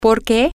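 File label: Spanish, why, female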